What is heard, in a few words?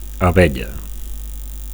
"abella", Catalan; (f) bee